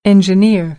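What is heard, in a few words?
"engineer", American English; AmericanBritish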